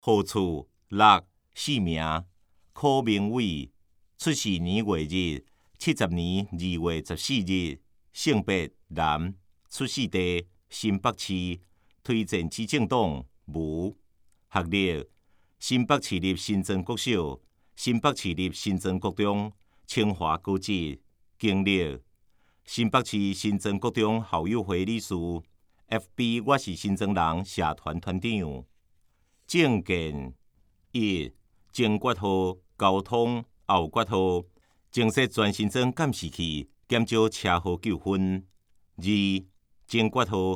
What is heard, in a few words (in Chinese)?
臺語; 新北市; 有聲公報; 市議員; 第3選區